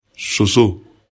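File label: "soso", Bambara; animaux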